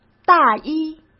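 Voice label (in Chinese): "大衣", Mandarin Chinese; hsk; chinese-flashcards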